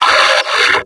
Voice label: "grenade!", combine soldier; hl2